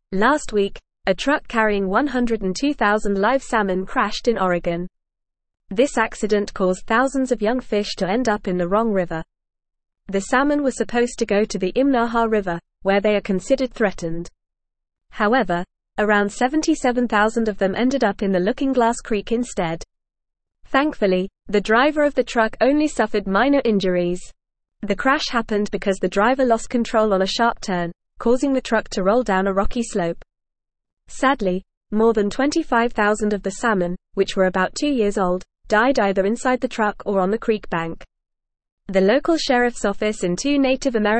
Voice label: English Upper Intermediate FAST Reading; Truck crash releases thousands of salmon into wrong